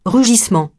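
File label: "rugissement", French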